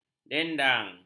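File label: "dendang", Malay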